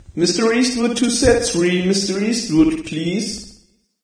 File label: announcement